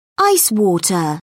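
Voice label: "ice water", British English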